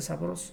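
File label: "sabroso", Spanish, Mexico City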